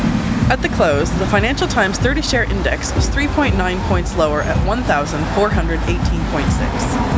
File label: noisy CHIME real; single-channel